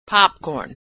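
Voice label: "popcorn", American English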